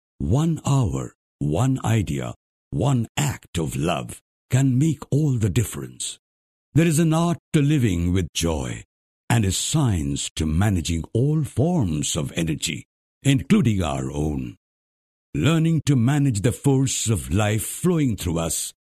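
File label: Bengali, male, English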